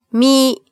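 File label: "mi", Japanese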